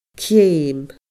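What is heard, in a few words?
"ceum", Scottish Gaelic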